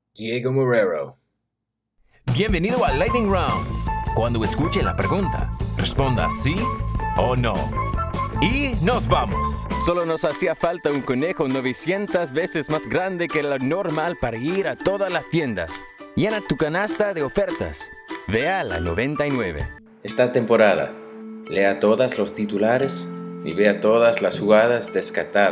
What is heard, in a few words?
Spanish